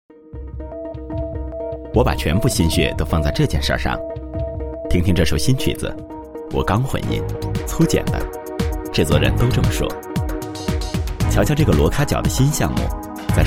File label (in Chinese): 男 广告 自然时尚, 国语男声配音; 惠普笔记本; 磁性专题